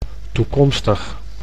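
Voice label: "toekomstig", Dutch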